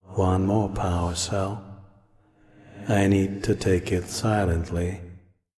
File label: Voice Monolog; we are the dwarves gamerip 2016